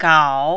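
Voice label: "gao", Mandarin, tone 3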